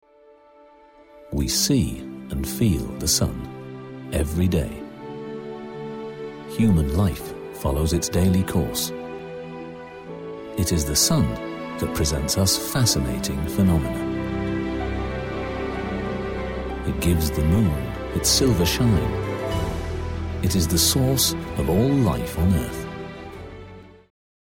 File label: Documentary